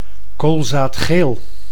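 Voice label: "koolzaadgeel", Dutch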